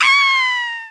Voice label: "nooo", Scouser

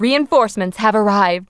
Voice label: "drop", Smooth Babe; Worms Armageddon

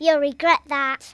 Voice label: youll regret that, Kidz; Worms Armageddon

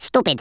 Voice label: "stupid", worms speech; Rushki

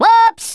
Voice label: oops, Drill Sergeant; Worms Armageddon

